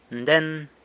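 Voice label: "nden", San Lucas Quiavini Zapotec; this one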